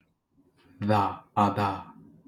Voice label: Voiced linguolabial tap